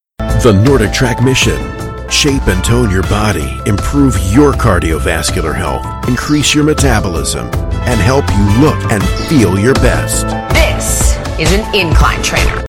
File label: fast informercial